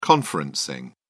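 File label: "conferencing", British English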